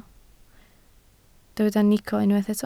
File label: "nico", Welsh